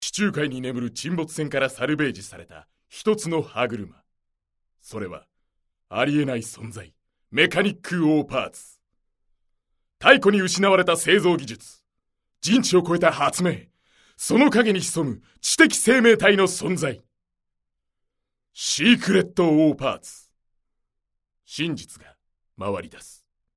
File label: narration